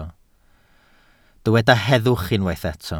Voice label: "heddwch", Welsh

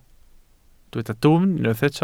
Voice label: "dwfn", Welsh

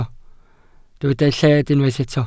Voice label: "lled", welsh

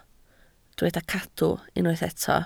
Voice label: "cadw", Welsh

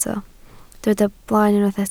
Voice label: "blaen", Welsh

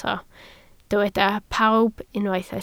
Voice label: "pawb", Welsh

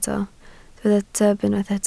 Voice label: "tyb", Welsh

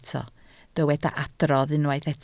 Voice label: "adrodd", Welsh

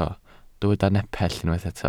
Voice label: "nepell", welsh

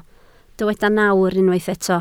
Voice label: "nawr", Welsh

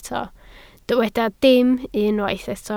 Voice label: "dim", Welsh

